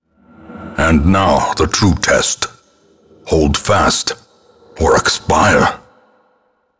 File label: Narration; Darkest Dungeon; deaths door